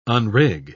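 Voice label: "unrig", English, British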